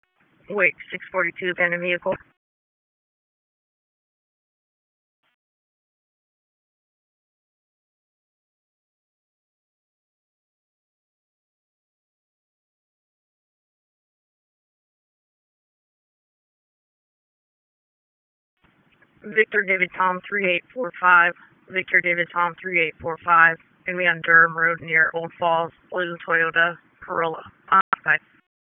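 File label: Sheriff's Office Dispatch 1, p25; WSO DISP 1; wake p25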